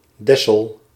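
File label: "Dessel", Dutch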